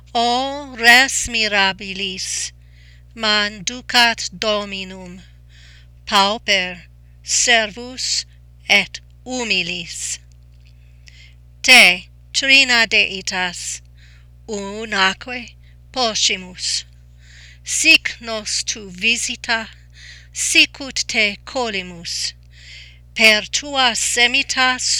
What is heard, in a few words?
Panis Angelicus Pronunciation